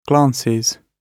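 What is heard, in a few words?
"glances", British English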